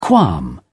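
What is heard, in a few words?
"qualm", English, British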